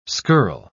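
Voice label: "skirl", British English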